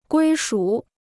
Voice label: "归属", Chinese

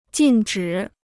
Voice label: "禁止", Chinese

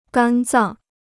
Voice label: "肝脏", Chinese

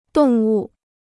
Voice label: "动物", Chinese

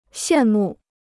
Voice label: "羡慕", Chinese

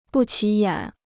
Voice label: "不起眼", Chinese

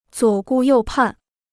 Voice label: "左顾右盼", Chinese

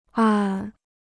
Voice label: "暗", Chinese

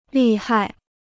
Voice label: "利害", Chinese

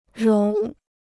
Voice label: "融", Chinese

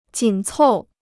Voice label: "紧凑", Chinese

